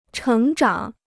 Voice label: "成长", Chinese

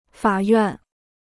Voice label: "法院", Chinese

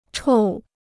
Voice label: "臭", Chinese

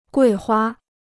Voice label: "桂花", Chinese

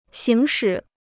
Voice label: "行使", Chinese

